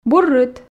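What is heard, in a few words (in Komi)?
"бур рыт", Komi, female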